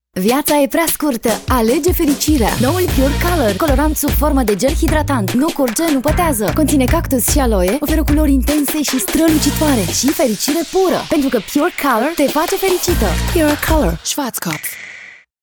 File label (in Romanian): RECLAMA RADIO